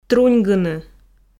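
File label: "труньгыны", Komi, female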